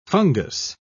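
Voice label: "fungous", British English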